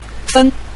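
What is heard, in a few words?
"fen", Mandarin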